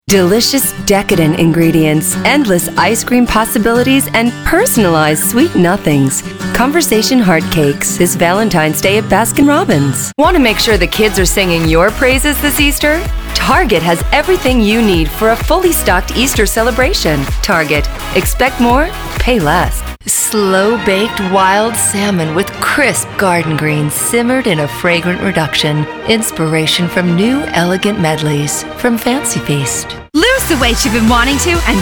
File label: Commercial